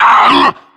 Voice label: charger pain, zombie; cstrike; zombie plague